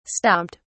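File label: "stomped", English, British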